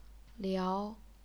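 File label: "liao", Chinese, second tone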